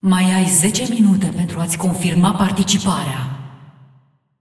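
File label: announcer female tournaments lockin 10min, hu_hu; clash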